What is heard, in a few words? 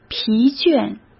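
"疲倦", Mandarin Chinese; chinese flashcards; hsk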